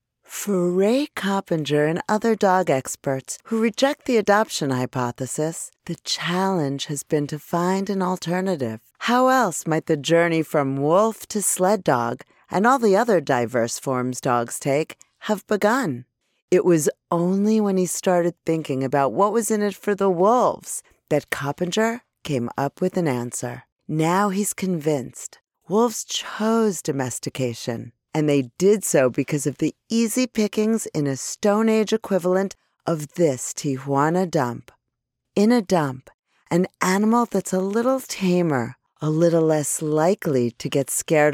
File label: Documentary Narrative